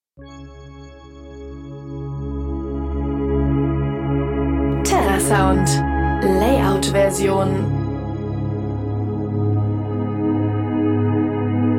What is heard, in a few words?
voiceover; terrasound